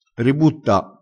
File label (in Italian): "ributta", vocabolario dialettale